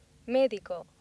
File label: "medico", accent; laboratori fonetica; practiques accent